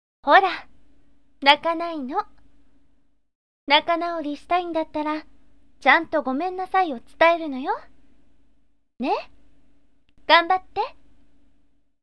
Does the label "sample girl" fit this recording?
Yes